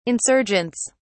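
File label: "insurgents", British English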